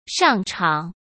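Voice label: "上场", Mandarin Chinese; chinese-flashcards; hsk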